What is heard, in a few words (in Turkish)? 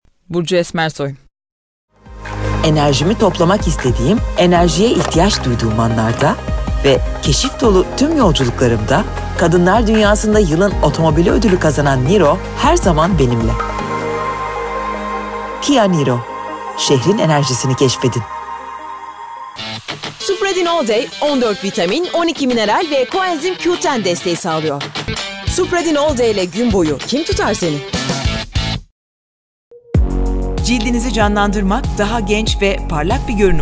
turkce kadin